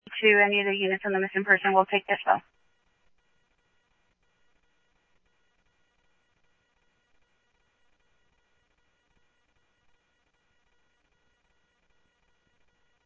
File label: PD Police, scanner audio